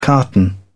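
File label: "carton", English, British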